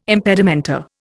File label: "impedimenta", Indian